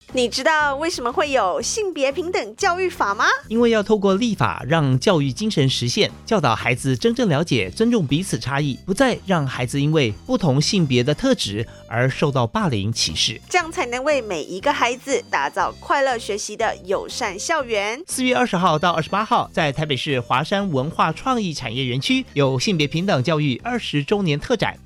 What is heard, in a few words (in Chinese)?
性別平等教育法20週年 國語; 教育部